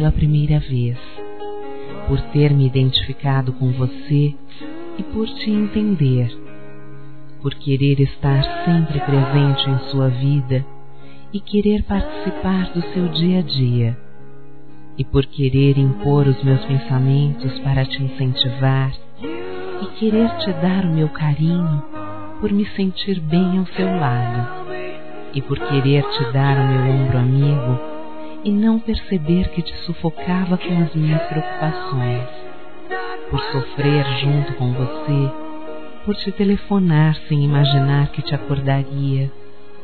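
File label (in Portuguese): DESCULPE POR TER AMAR, female; Dia Dos namorados; Telemensagens 2017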